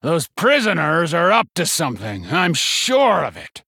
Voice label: Male Human Idle; Thelwater; Scabbs